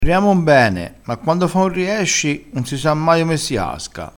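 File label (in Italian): "riesci", vocabolario dialettale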